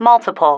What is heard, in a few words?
"multiple", female